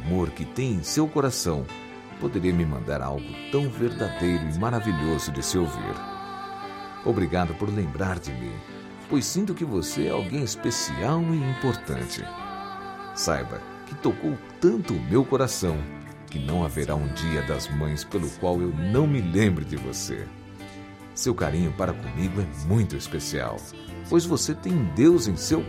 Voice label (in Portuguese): Agradecimento pela mensagem recebida, telemensagem